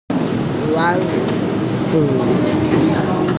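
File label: "wan2ju4", Mandarin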